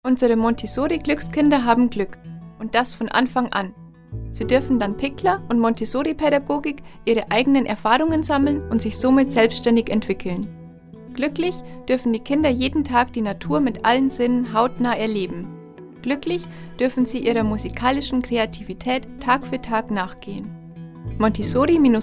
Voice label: Werbung; Montessori Glueckskinder

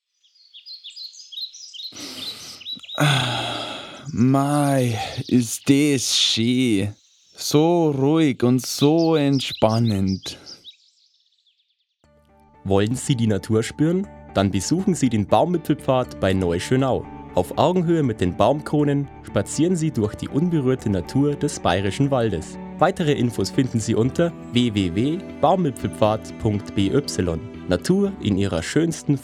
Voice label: Werbung; Erlebnis Akademie

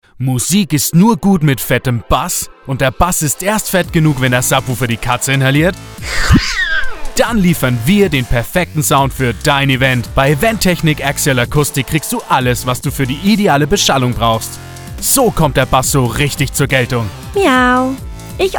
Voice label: Werbung; Axial Acoustic

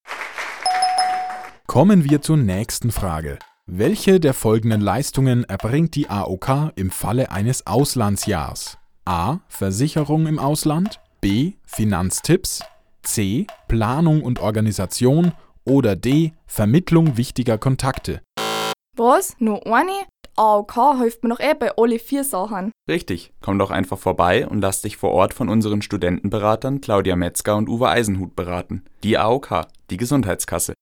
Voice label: Werbung; AOK

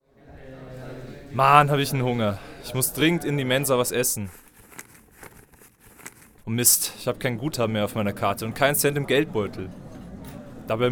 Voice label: Werbung Sparkasse

